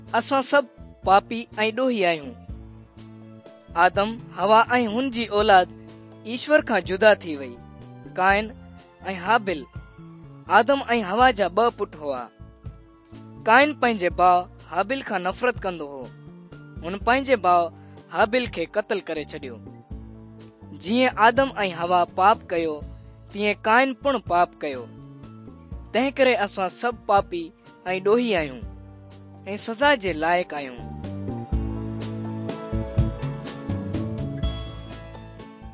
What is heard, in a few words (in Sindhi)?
good news sindhi dukslinu, drama